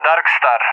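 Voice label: "Darkstar", English, Call Sign; Allied Flight; DCS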